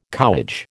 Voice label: "cowage", American